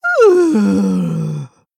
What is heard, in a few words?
Female Tortollan Death